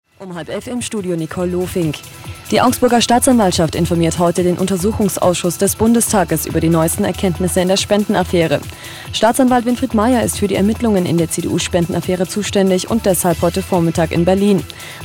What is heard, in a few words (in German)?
Radio Lokal Nachrichten